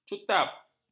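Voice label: "cutap", Malay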